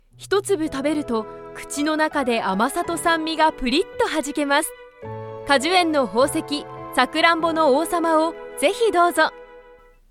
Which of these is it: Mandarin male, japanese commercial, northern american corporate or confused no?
japanese commercial